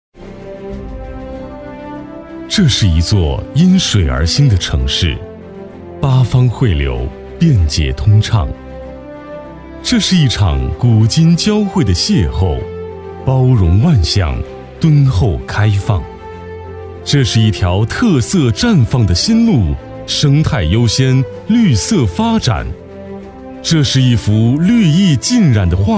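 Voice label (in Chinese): 男国大音-城市宣传片; 筑梦泗阳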